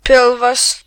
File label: belly, lt; gcompris